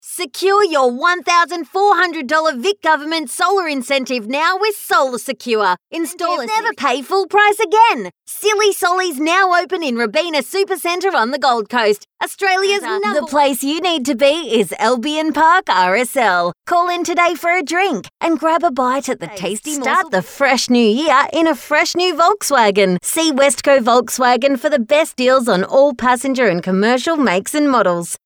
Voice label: Hard Sell